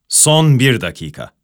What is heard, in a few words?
msg left 1 minute; wolfteam